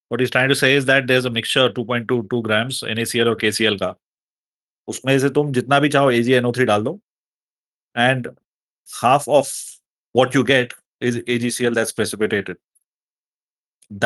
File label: voice message